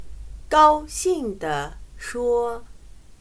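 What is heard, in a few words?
"高兴地说", Chinese; 棉花姑娘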